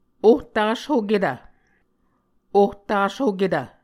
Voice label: "ohtashoketv", Muscogee

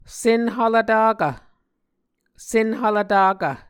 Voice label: "senhvlvtakv", Muscogee

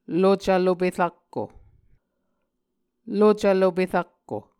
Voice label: "lucv lope rakko", Muscogee

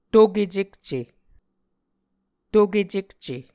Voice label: "tokecekce", Muscogee; Mvskoke Words with Voicing Consonants; A Dictionary of Creek/Muskogee; SIL International; Onvkv Ohhvyayices

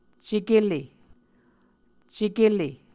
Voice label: "cekehle", muscogee

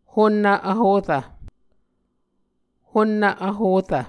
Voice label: "honnv vhorv", Muscogee

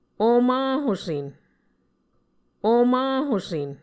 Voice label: "omahusEn", Muscogee; Mvskoke Words with Nasalized Vowels

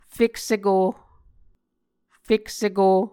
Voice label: "fekseko", muscogee; Mvskoke Words with Voicing Consonants; A Dictionary of Creek/Muskogee; SIL International; Onvkv Ohhvyayices

